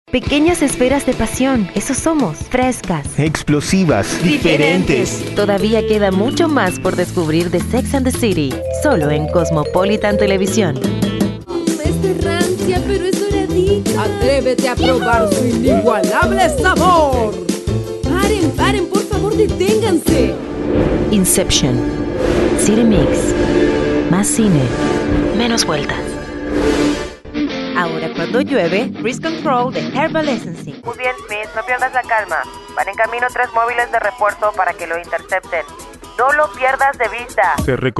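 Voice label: spanish commercials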